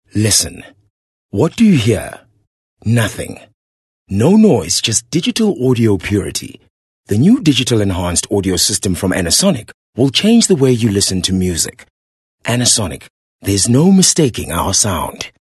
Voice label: English Softsell